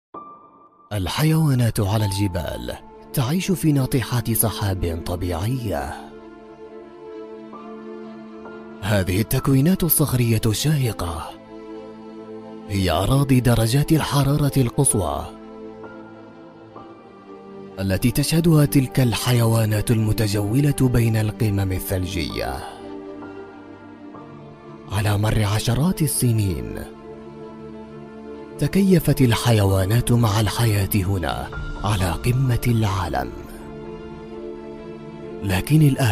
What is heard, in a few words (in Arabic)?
وثائقي دليل البقاء بصوتي تعليق صوتي